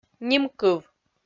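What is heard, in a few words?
"нимкыв", Komi-Zyrian, female; kpv audio dict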